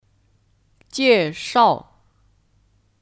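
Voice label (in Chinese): "介绍" Chinese; Jièshào; Kata kerja; Memperkenalkan; 我来介绍一下 Wǒ lái jièshào yíxià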